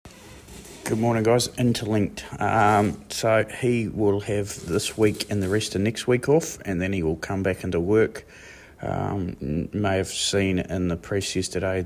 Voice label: voice note; Interlinked NZ